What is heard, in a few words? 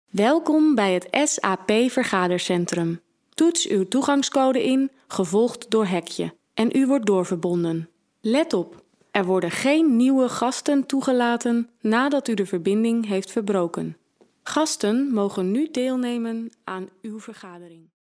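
Dutch, female, e-learning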